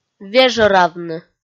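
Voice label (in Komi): "вежӧравны", Komi, female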